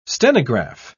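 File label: "stenograph", British English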